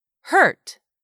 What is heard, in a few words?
"hurt", English; 3000 words